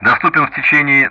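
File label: Speech Sound Russian; DCS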